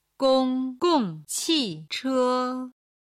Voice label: "gong1gong4qi4che1", Chinese

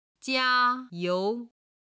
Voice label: "jia1 you2", Chinese

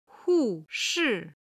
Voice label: "hu4 shi4", chinese

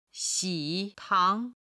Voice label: "xi3tang2", Chinese

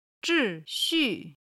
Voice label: "zhi4xu4", Chinese

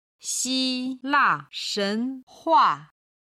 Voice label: "xila shenhua", Chinese

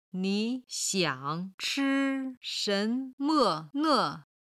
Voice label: "ni3 xiang3 chi1 shen2 me5 ne5", chinese

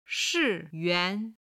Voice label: "shi4 yuan2", Chinese

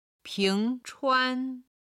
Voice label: "ping2 chuan1", Chinese

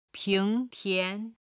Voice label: "ping2 tian2", Chinese

